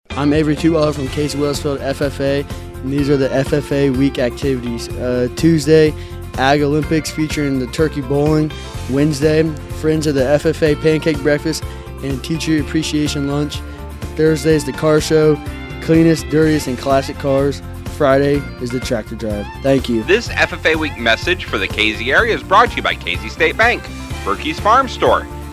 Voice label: male female; ffa week